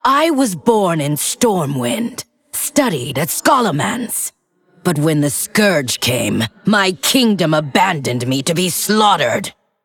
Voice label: Female Undead